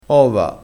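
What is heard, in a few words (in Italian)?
"ova", dialetto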